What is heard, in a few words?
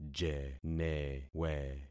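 "jenewe", concatenated syllables; Affixing experiment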